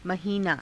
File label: "mahiinaa", Hindi; महीना; [男]月